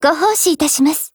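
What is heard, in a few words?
PHRASE, Japanese; G36Mod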